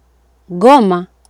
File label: "ngoma", Swahili